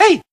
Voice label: "ay", voice

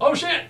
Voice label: oh shit, Voice expletives

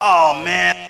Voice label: oh man, male; ooh ahh

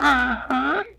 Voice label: "uh huh" boy

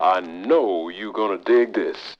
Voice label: i know you going to dig this male; Voice; introductions

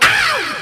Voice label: ow male

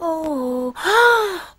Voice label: "oh ah", girl; ooh ahh

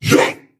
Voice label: "jump", voice; ooh ahh

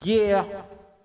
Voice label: "yeah" echo

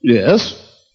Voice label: "yes", male

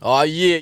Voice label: "ah yeah", voice

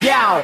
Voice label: "yo", voice

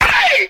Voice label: "hey", Voice

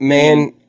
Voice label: "man", g-rated swears; Voice; expletives

